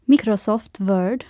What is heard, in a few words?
winword, swedish; Aristosoft, Inc.; icons